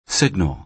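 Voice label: "signal", American English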